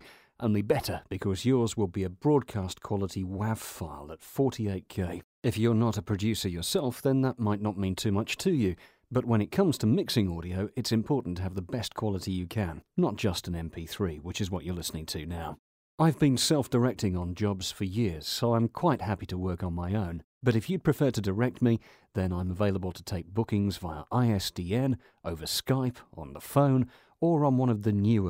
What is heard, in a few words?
studio sample